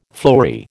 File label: "fleury", American